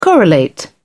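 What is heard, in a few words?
"correlate", British English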